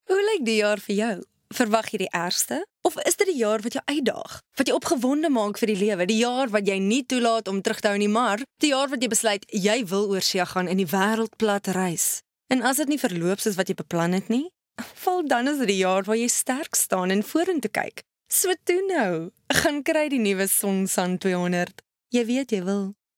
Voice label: Afrikaans Coversational

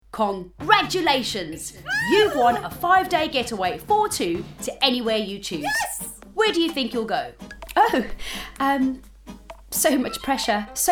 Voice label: Travel Ad High energy

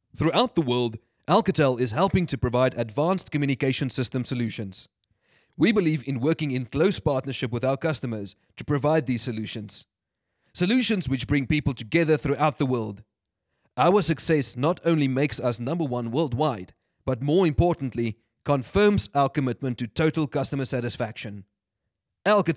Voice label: Hard Sell

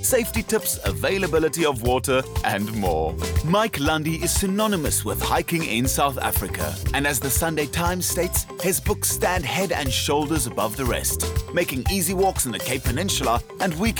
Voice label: Hard sell English

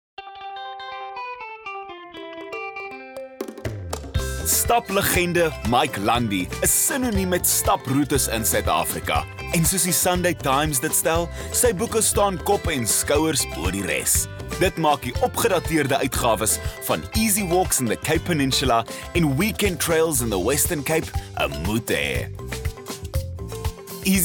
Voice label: Hard sell Afrikaans

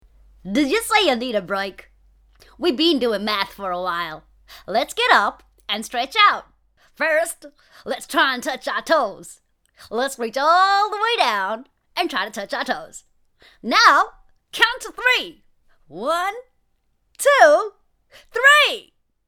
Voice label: Animated Voice Kids Guide

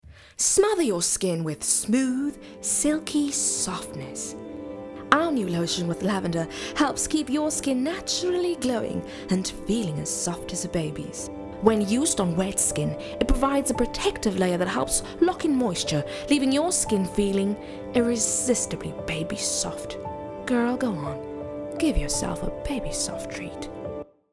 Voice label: Voice Over Lotion

